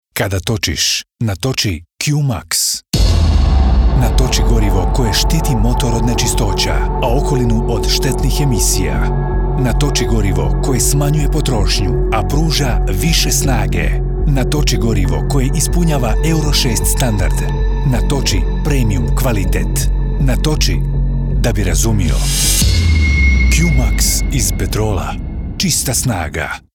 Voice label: RADIO; Petrol Q Max